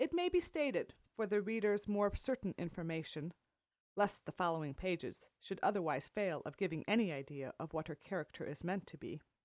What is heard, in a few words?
female inst src